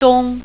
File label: winter, mandarin